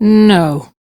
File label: Crew Reject, Female; Dialog; en; Warframe; RailjackCrew; Steel Meridian Female